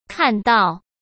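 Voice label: "看到", Mandarin Chinese; chinese-flashcards; hsk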